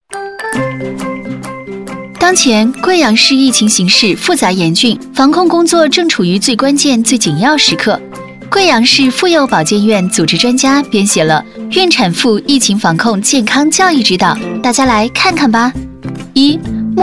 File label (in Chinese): 女-MG动画-甜美活力; 孕产妇疫情防控; 轻松活泼